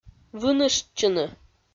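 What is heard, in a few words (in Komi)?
"выныштчыны", Komi-Zyrian, female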